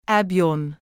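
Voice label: Arabic "أَبْيُون"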